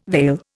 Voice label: "veil", Indian

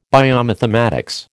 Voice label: "biomathematics", American

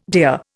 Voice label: "deer", Indian

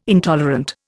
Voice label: "intolerant", Indian

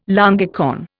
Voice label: "longicorn", Indian

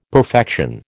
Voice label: "perfection", American

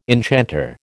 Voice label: "enchanter", American

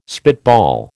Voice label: "spitball", American

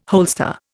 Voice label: "holster", Indian

